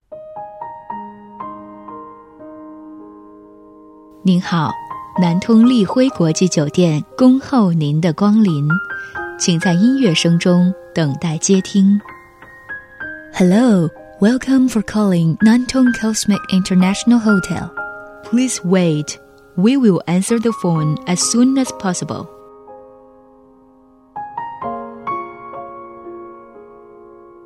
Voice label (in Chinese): 促销 酒店女 激情; 丽辉国际酒店女45